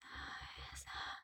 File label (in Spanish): Susurro Conjuro